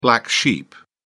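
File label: "black sheep", British English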